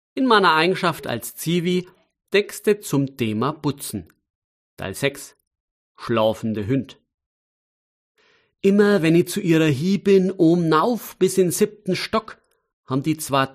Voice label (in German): Mundart